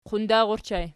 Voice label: "qundar", Archi